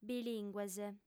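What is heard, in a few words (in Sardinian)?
"bilìngues", female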